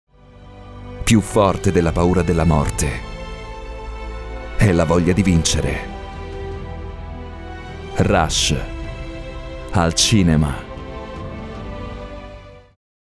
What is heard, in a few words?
italian movie trailers